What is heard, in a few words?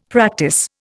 "practice", Indian; Dictionary